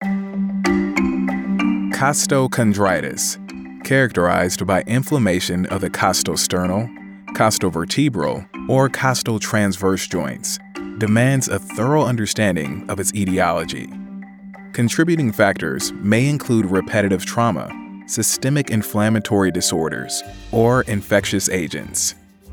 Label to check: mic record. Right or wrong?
Wrong